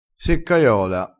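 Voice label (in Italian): "seccaiola", vocabolario dialettale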